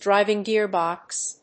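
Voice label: "driving gear-box", English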